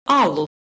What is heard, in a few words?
"alu", Proto-Germanic